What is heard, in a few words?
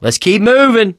in water, voice, mechanic, survivor; Left 4 Dead 2